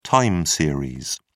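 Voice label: "time series", British English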